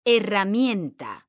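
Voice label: "herramienta", Spanish, female; mekanta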